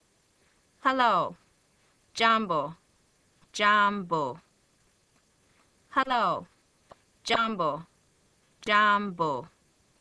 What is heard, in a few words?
Swahili Hello